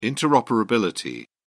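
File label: "interoperability", British English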